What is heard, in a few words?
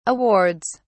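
"awards", British English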